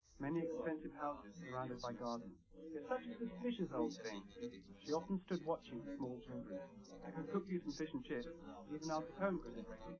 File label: male live rec; SiSEC10; underdetermined